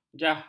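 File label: "jah", Malay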